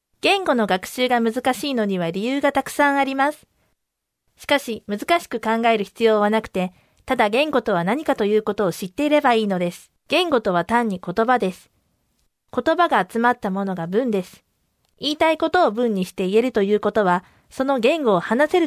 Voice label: Japonca Kadın

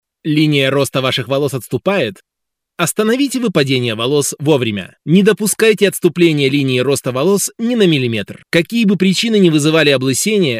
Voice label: Rusça

